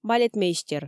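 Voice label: "балетмейстер" Komi, female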